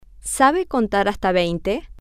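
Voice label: sabe contar hasta veinte, Spanish, female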